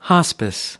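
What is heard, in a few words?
"hospice", American English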